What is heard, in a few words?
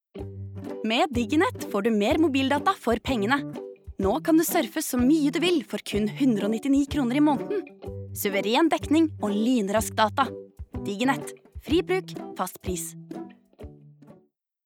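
Norwegian reklame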